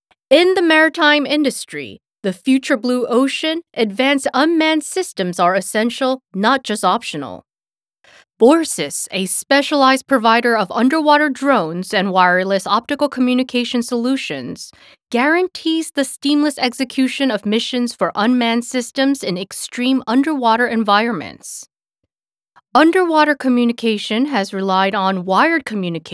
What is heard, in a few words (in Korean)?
영어 신뢰감